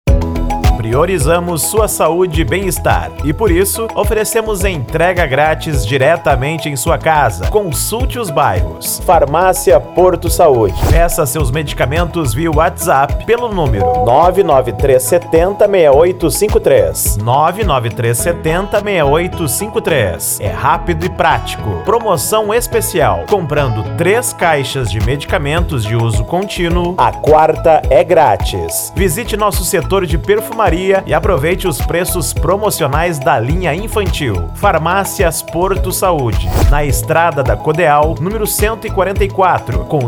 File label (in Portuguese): Locutor; Farmacias Porto Saude Maceio AL